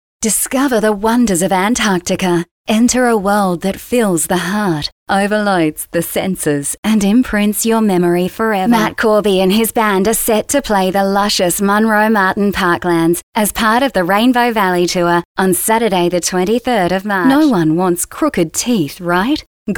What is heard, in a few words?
Soft Sell